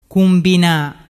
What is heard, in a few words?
"cumbina", dialettu